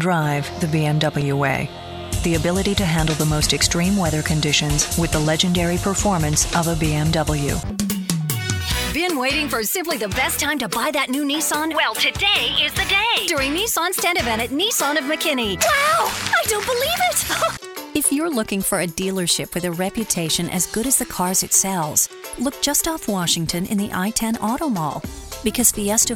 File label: automotive, voice talent; talent demos